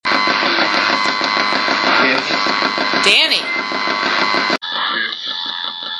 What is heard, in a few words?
radio voices yes